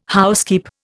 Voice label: "housekeep", Indian